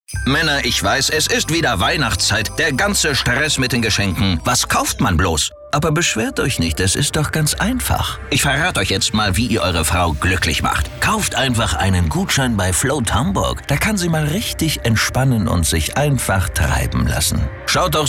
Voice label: Kraftvoll; Fremdtake

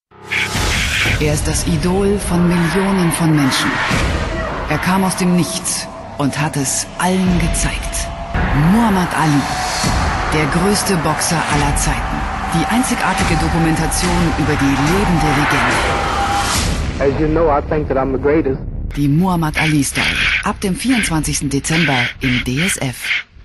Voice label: Werbung Dynamisch

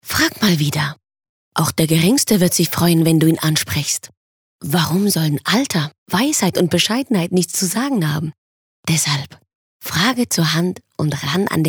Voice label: Werbung Sanft

